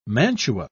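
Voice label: "mantua", English, British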